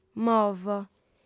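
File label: "movo" Sardinian, female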